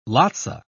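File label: "lotsa", British English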